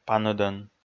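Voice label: "паныдӧн", Komi, male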